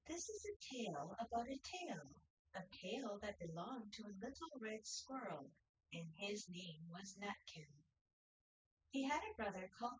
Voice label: female4 synthconv; SiSEC08; SiSEC underdetermined

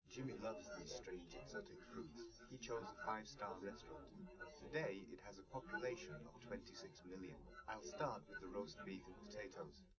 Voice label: test male live rec; SiSEC11; underdetermined

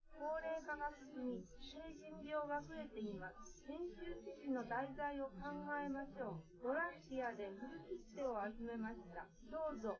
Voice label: female live rec; SiSEC10; underdetermined

